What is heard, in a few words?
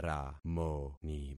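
"ramoni", concatenated syllables; Affixing experiment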